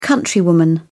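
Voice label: "countrywoman", British English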